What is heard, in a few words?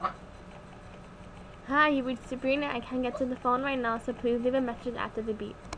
voicemail